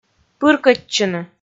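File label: "пыркӧдчыны", Komi, female; kpv audio dict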